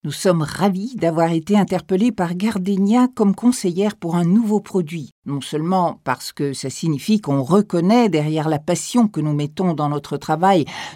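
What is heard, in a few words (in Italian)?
francese, Demo Voci Spot Pubblicitari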